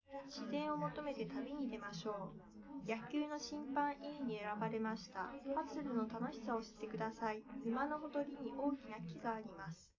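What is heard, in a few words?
female, live recording; SiSEC11; underdetermined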